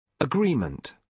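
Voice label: "agreement", British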